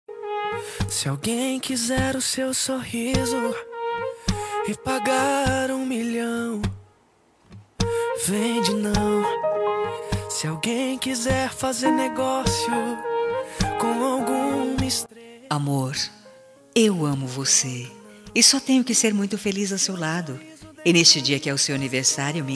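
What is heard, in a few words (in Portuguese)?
birthday ROMANTICO female, telemensagem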